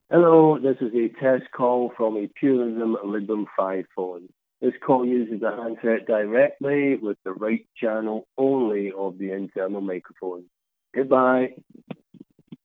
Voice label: Test Message, Handset direct with right channel only mic; Librem 5